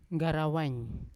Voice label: "ngarawain" long, Yawa

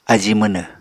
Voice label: "ají mwnw", Apatani

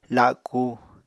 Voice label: "laku", Apatani

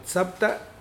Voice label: start, Mokilese

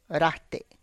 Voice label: "rate", all, tlacochahuaya

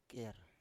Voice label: "ker", Biak

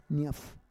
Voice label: "nyaf", Roon

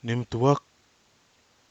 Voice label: "nɨmɨtuak", Kwamera

